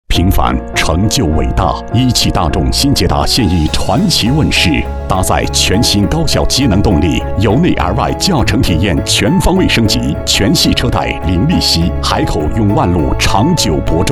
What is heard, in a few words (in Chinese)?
男 广告; 一汽大众新捷达